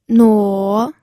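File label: "nuo", third tone, pinyin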